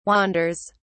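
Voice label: "wanders", English, British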